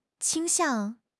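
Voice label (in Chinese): "倾向", Chinese; HSK; HSK6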